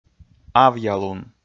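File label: "авъялун", Komi, male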